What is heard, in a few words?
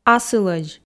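"асылӧдз", Komi, female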